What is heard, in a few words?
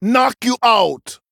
Male Troll Attack